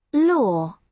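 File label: "law", British English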